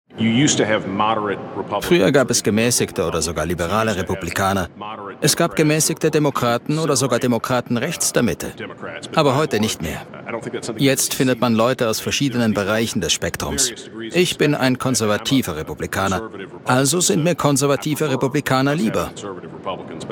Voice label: dokumentation reportage; jackson mississippi; Sprecher in Zürich; Muttersprache Deutsch, Deutsch/Schweizerdeutsch; arte

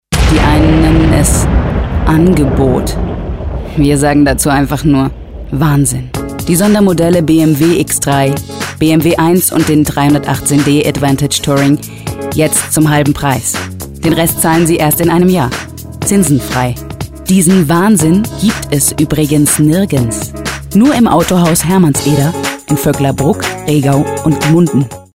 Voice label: Werbespot; bmw

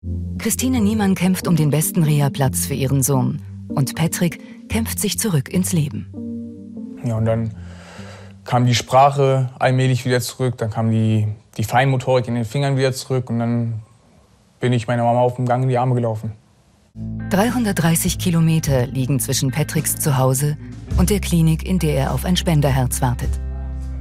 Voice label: Offstimme; sat1; bei anruf herz

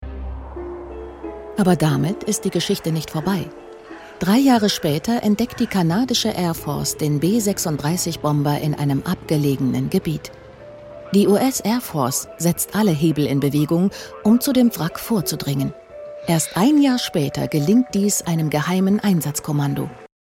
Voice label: doku atombombe d

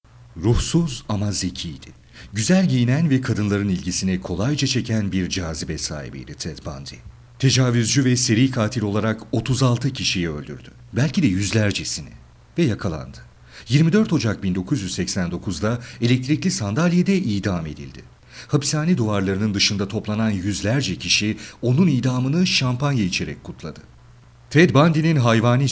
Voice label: Dokumentarfilm; acer

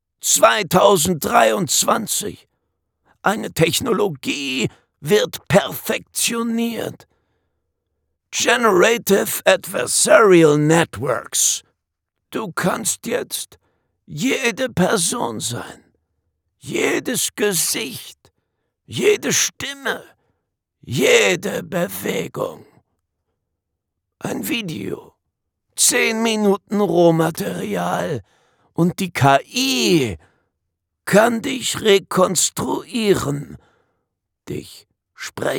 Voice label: Trickstimme heiser verhangnisvoll

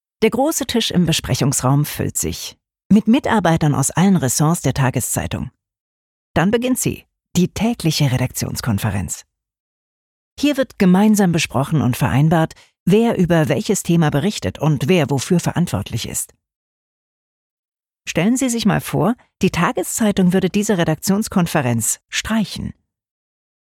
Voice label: imagefilm office

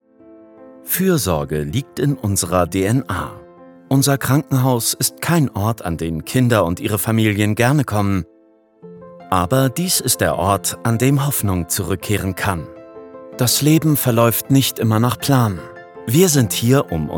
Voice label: Imagefilm warm fürsorglich

